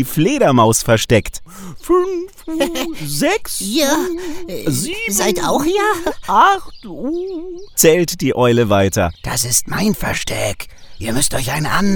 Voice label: hoerbuch kinder alle stimmen; versteckte tiere; Sprecher in Berlin, Frankfurt a. M., Hamburg, München, Nürnberg, Stuttgart

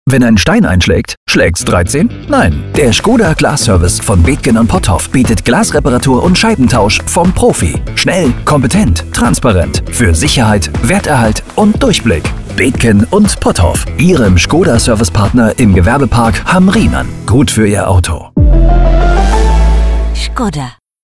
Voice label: funkspot glasservice; betken potthoff; skoda

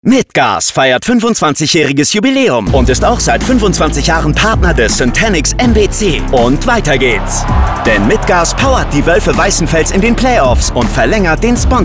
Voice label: werbung kraftvoll dynamisch

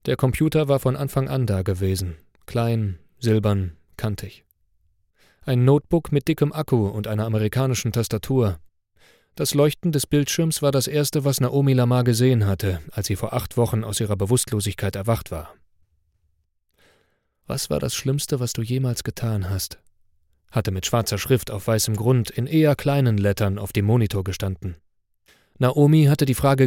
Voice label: horbuch thriller

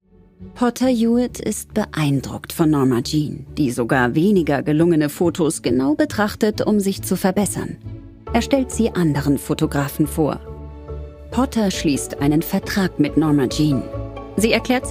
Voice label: Kommentar Doku; arte; marilynmonroe

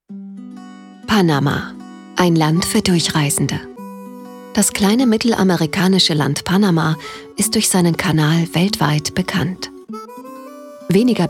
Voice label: naturdoku

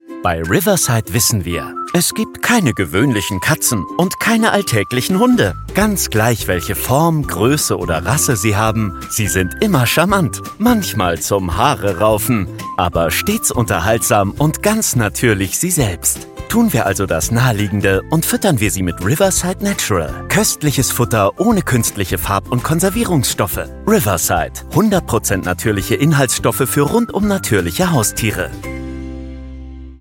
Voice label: Werbung freundlich sorglos

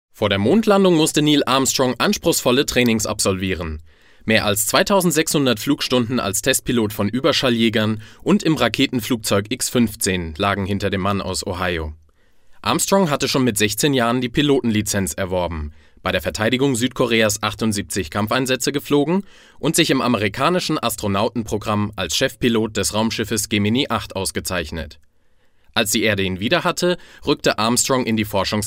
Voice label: hoerfunkbeitrag

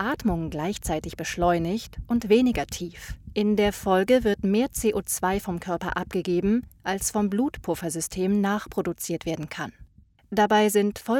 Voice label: medizinisch elearning hyperventilation

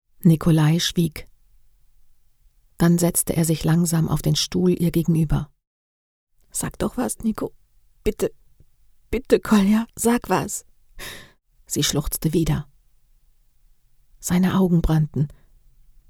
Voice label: dialog

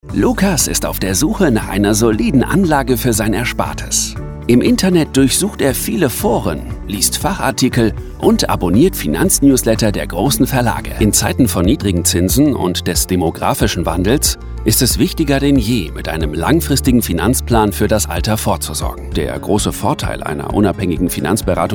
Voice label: erklaervideo finanzen

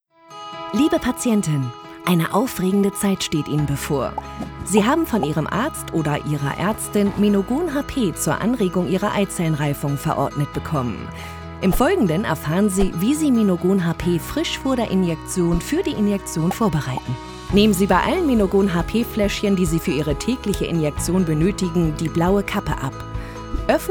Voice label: erklärfilm medizin; ferring

